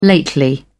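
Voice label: "lately", British English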